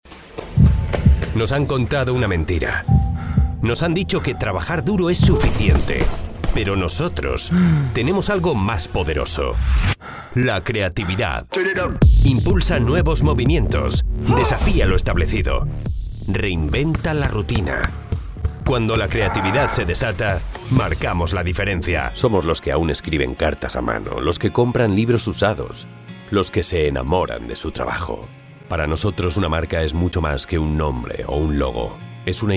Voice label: male spanish comercial; ideasonora